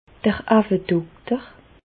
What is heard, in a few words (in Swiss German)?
"auwedokter", Bas Rhin; medecine; ophtalmologue